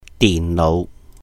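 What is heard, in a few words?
"dihn nóuh", Cantonese; computer; 電腦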